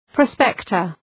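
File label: "prospector", English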